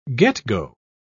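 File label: "get-go", British English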